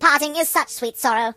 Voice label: "So long", British; hedgewars

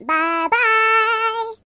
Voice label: "Bye bye", singer voice

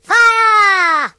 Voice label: "Fire", British; hedgewars

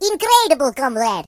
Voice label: Amazing, Russian; hedgewars

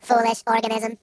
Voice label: "Boring", Robot; hedgewars

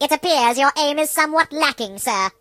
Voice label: "Missed", British; hedgewars

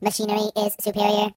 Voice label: "Amazing", Robot; hedgewars

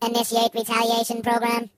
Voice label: I'll get you, voices Robot; hedgewars

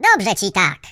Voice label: Enemy down, voices, pl; hedgewars; Default pl

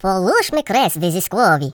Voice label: Come on then, Russian; Russian pl

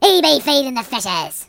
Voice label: Enemy down, Pirate voices; hedgewars

